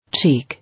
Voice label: "Cheek", English